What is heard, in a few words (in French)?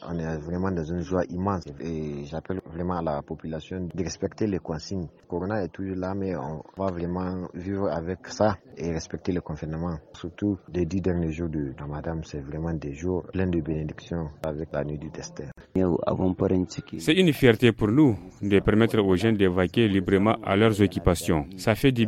VOX POP, levée du couvre-feu et réouverture des lieux de cultes; covid19 niamey couvre feu reouverture lieux cultes; Pendant que Niamey reste en isolement sanitaire, à l’intérieur du pays on se demande si ce; FR